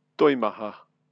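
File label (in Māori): "toimaha", Māori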